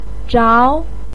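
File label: "zhao2", Mandarin Chinese pinyin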